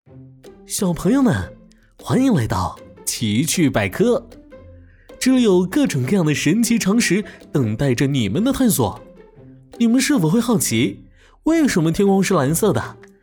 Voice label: 儿童节目

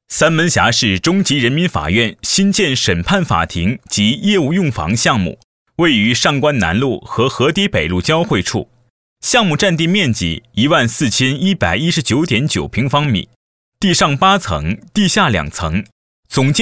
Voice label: 专题 政府 工程 汇报

